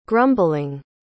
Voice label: "grumbling", pronounce